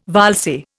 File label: "valse", Indian